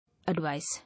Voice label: "Advice", English

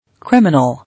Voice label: "Criminal" English

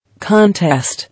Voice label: "Contest", English

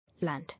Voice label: "Land", English

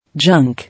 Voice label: "Junk", English

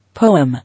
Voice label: "Poem", English

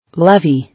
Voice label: "Levy", English

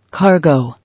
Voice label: "Cargo", English

